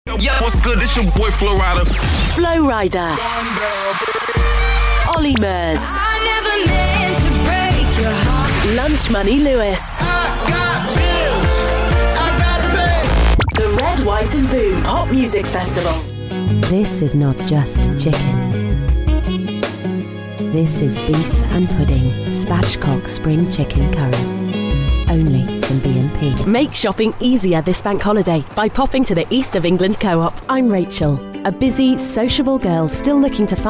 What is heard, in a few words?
Commercial Reel